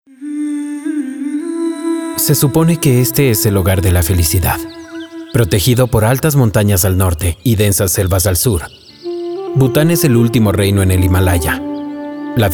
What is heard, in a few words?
spanish documentary